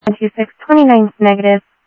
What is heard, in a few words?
Info Sheriff Common, scanner audio; South Scottsdale; MCSO